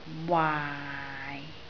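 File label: "waai4" Cantonese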